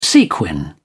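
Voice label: "sequin", British English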